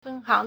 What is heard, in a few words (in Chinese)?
"fen1hang2", Chinese